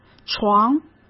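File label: "床", Mandarin Chinese; chinese-flashcards; hsk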